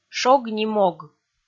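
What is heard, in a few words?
"шог ни мог", Komi, female; kpv audio dict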